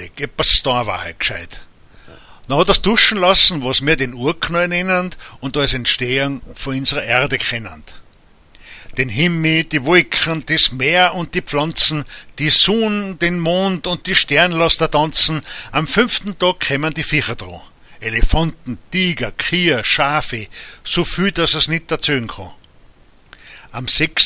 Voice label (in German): Insa Mundart